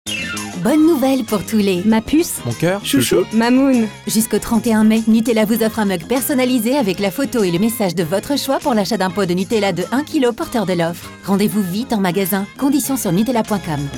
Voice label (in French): PUB RADIO; NUTELLA